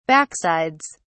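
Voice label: "backsides", British English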